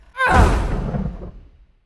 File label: taunt female; Skyrim Special Edition